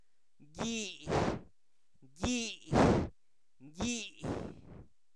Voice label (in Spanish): excremento, Zapoteco, santo domingo albarradas; objetos inanimados